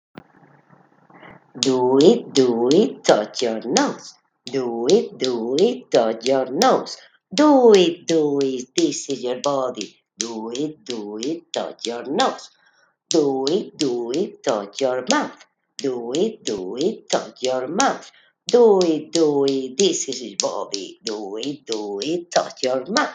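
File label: count to five in french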